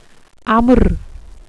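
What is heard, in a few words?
"amr", welsh pronunciations; Wales/welsh names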